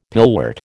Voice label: "pillwort", American